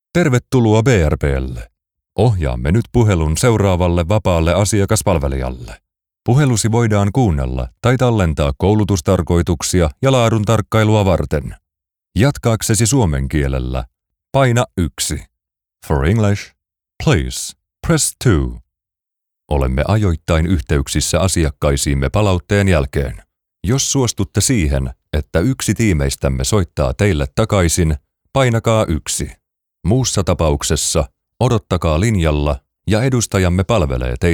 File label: Phone Systems IVR On Hold Messages